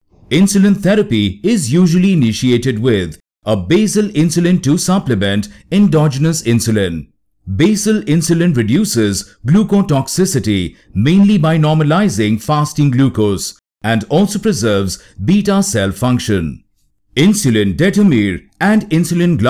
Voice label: eLearning Medical